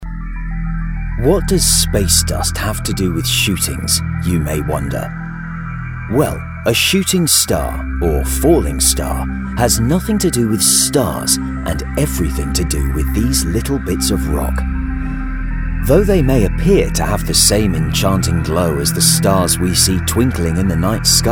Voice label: Narrative

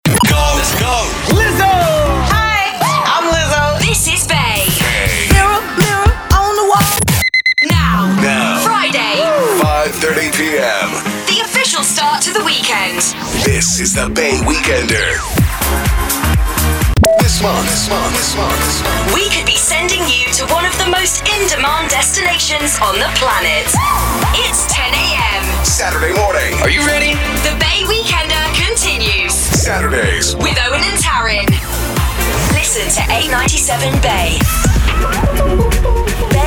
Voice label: Imaging

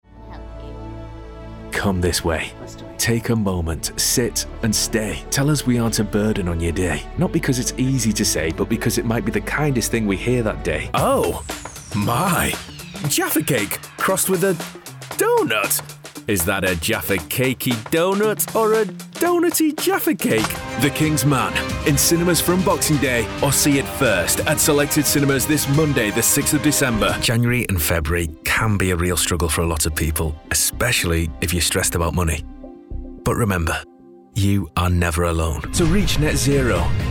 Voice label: Commercial; Showreel

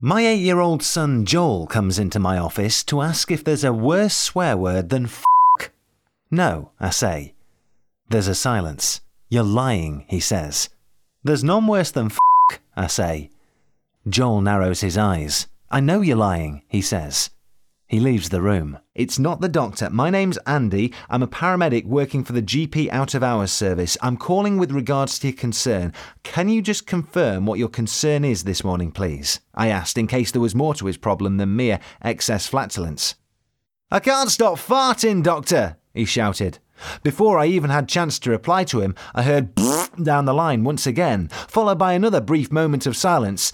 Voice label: Audiobook

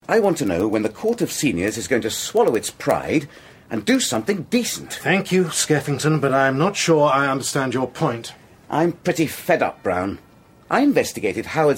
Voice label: Radio Drama

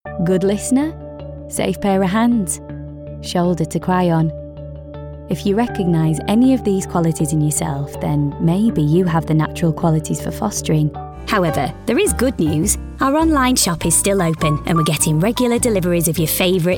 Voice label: Commercial

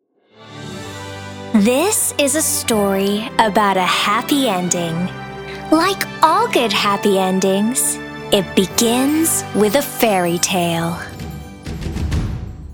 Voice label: ANIMATION

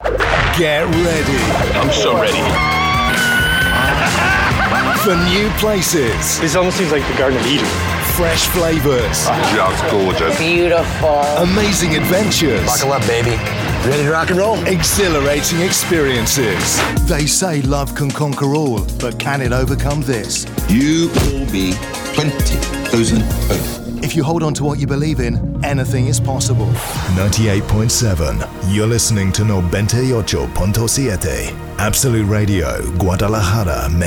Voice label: Commercial

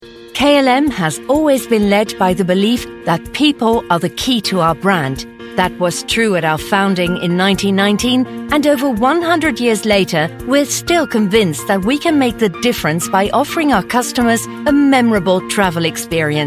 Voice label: English Corporate

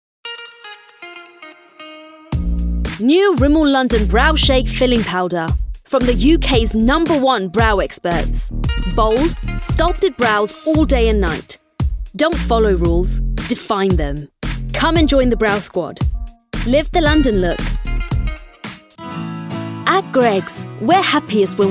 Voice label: Commercial

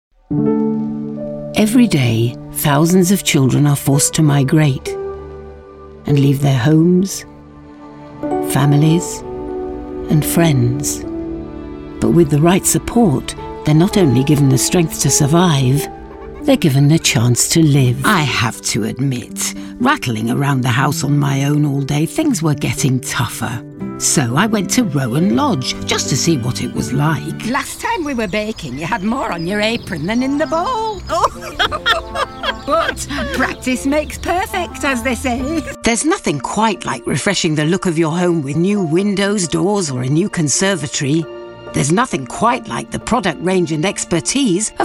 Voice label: commercials

